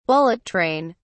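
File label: "bullet train", British English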